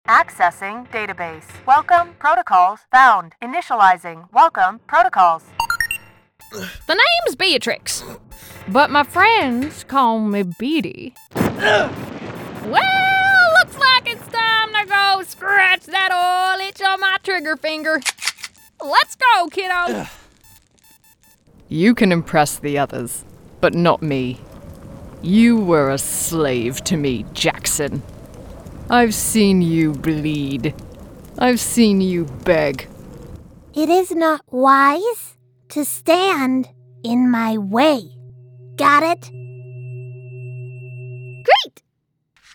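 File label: character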